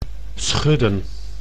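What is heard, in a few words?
"schudden", Dutch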